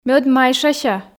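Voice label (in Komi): ме ӧд майшася, Komi, female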